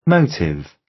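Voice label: "motive", British; Crime and punishment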